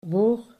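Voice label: "woog", Dutch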